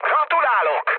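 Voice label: player killed enemy, mood low, Hungarian; speech; War Thunder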